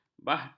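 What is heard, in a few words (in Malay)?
"bahtera", Malay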